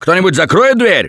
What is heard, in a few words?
Close The Door, russian; Gambler